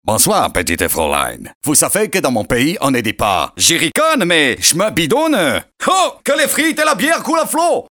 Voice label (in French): allemand